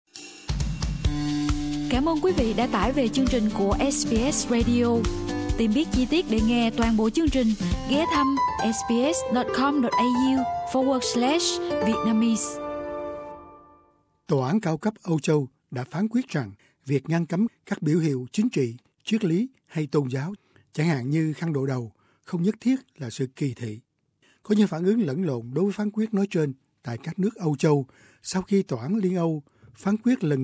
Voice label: vietnamese